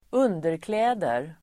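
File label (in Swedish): "underkläder", Swedish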